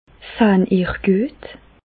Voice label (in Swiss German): sahn, Bas Rhin; medecine; voyez vous bien